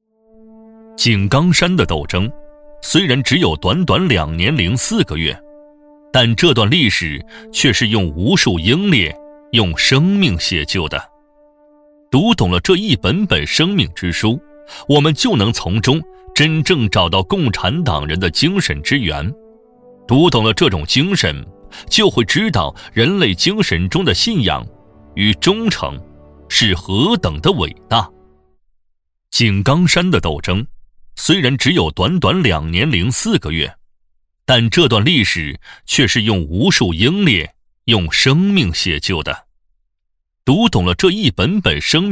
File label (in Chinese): 男 红色纪录片; 井冈山; 男B2-厚重有力; 大气浑厚